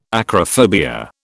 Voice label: "acrophobia", British